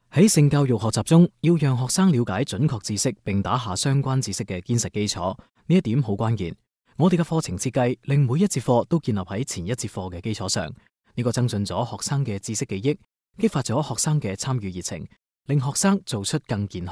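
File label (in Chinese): 粤语企业培训; 鲸读全球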